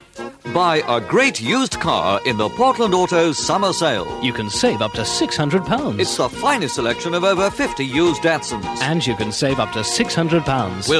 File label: Radio Hallam Advert - Great Used Ca; Portland Autos